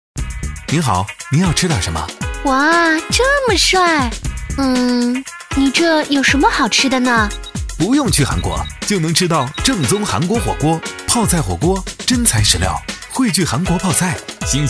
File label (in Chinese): 男 广告 人物对话 韩国火锅, 国语男声配音 暖声录音